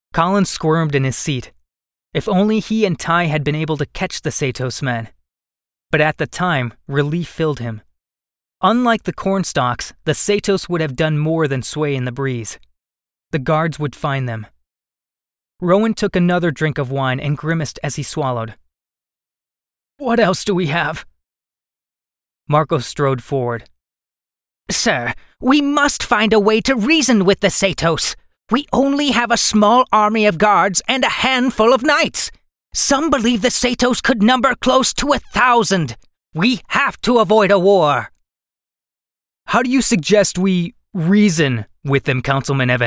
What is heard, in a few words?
english american audiobooks